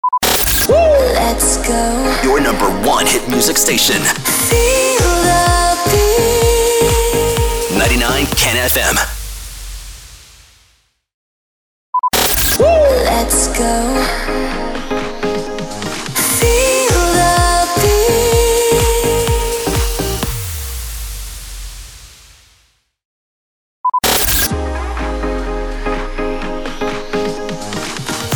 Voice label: SWEEPER